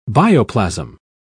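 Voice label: "bioplasm", British English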